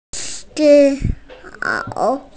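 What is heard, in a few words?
"nǐ hǎo", Mandarin Chinese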